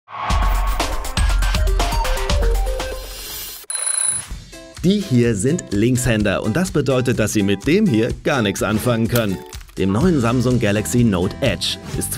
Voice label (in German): Werbung; simyo Samsung Note Edge